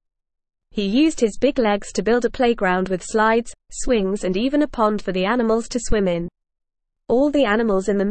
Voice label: ESL Short Stories for Kids NORMAL reading The Giant Sheep